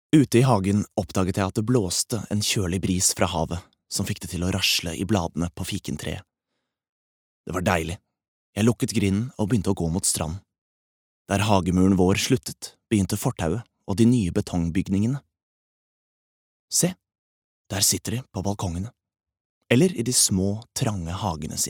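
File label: no audio book, Norwegian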